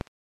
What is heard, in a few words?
Aviation 121.5 Emergency